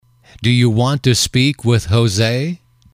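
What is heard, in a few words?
do You Want To Speak With X, english, male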